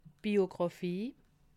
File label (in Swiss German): "biographie", alsace